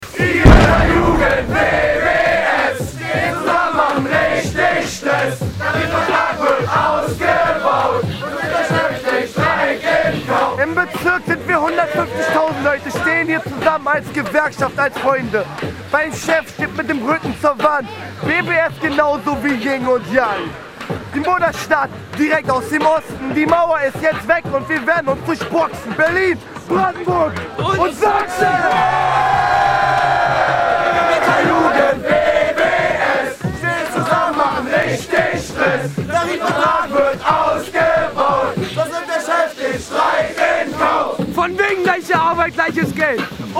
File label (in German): Ansage